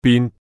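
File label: "bin1", Cantonese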